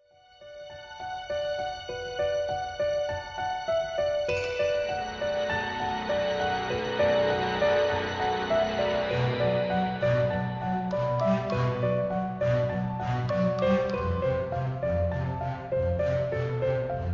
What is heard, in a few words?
podcast; insects in amber